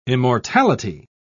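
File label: "immortality", British English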